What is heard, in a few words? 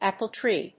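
"apple tree", English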